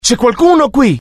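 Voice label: curious, Italian; mohaa; dialogue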